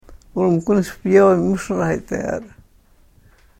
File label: karuk